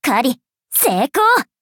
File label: level up, ja